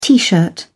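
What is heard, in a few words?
"tee shirt", British English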